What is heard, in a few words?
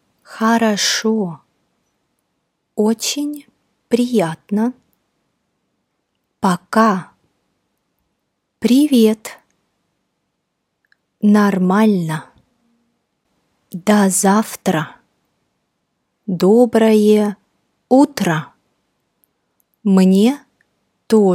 greetings dictation, Russian